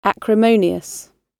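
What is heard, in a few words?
"acrimonious" British English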